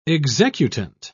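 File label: "executant", British English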